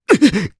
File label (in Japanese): Damage, jp; voices; heroes; Dimael; kings raid